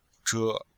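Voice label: "zhe", Chinese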